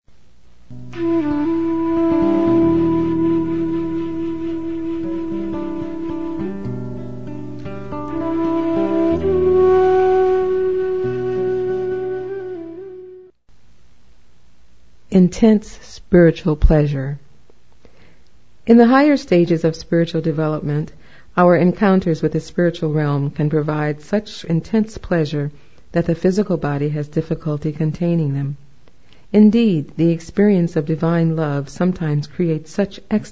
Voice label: Recitation; His Holiness Bhakti Tirtha Swami; Spiritual Warrior II; Intense Spiritual Pleasure